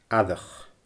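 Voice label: "aireach", Scottish Gaelic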